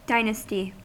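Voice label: "dynasty", US English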